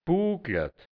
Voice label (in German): "buglat", hianzisch Dialekt Burgenland; buckelig; gekrümmt